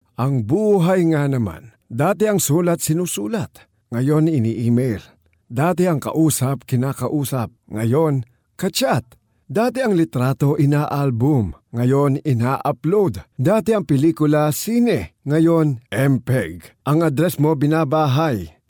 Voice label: tagalog promos